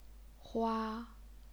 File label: "hua1", chinese pinyin